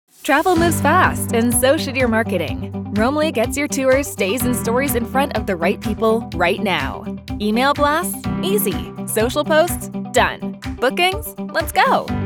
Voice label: Explainer Videos, Fun, Upbeat Product Explainer, female voice; female voice actor located in Toronto, Canada; Roamly